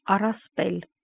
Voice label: "arraspel", Armenian

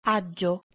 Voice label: "agjo", Proto-Germanic

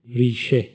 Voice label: "risheh", Persian

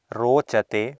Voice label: "rocate", Sanskrit

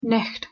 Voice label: "nicht", Scots

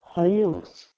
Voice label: "h1oiwos" PIE

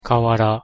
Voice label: "kawara", Japanese

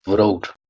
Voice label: "wrot", Proto Germanic

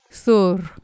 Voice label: "thurh", Anglo Saxon

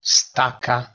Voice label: "staca", Anglo Saxon

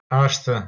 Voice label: "eshte" Albanian

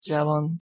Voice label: "javan", Persian

